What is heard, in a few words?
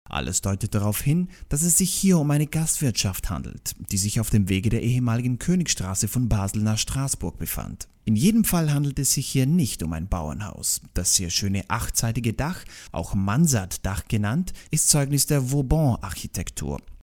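German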